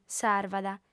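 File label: "sarvat", lingua sarda, female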